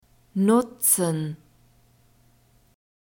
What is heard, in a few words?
"nutzen", german; masculine